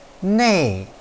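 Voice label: "nei4", Chinese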